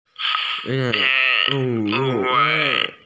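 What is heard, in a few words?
"toilet", Mandarin; ce4suo3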